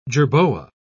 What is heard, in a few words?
"jerboa", pronounce